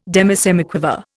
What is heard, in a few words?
"demisemiquaver", Indian